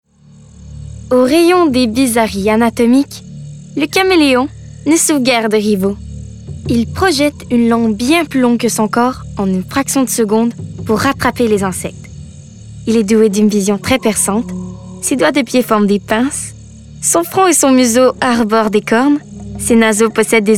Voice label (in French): Narration; le Cameleon